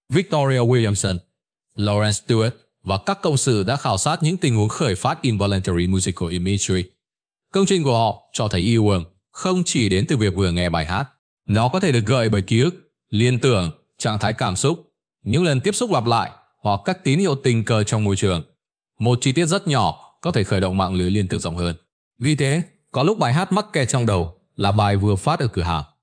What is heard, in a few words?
narration